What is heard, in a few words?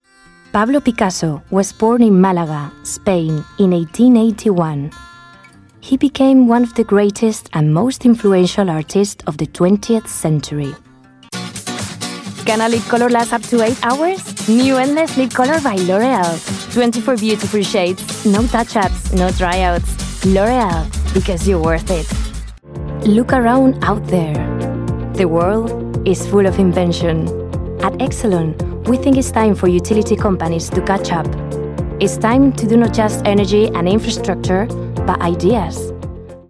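Spanish English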